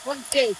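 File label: voice acting, es, female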